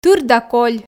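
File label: "тыр да коль", Komi, female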